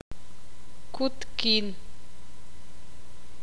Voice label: "corto", ixil

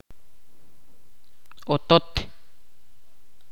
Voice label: "casa", Ch'orti'

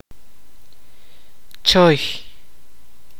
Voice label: "lago", Kaqchikel

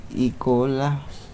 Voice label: Nawat palabras cola; pipil

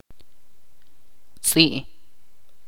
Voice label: "perro", Ch'orti'

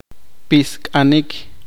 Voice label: "saltar", Poqomchi

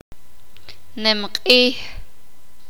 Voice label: "Fiesta", Sakapulteko

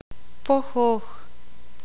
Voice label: "polvo", ixil

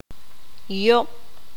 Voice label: "malo", Q'anjob'al

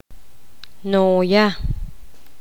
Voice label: abuela materna, poqomam

